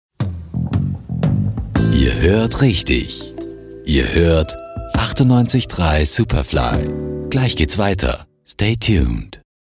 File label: Station-Voice warm; Superfly